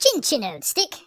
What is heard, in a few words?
"bummer", speech; Biggles